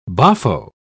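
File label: "boffo", British English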